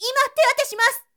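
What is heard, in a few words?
woman, "imateatesimasu"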